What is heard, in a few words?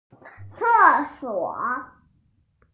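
"toilet", Mandarin, hearing-impaired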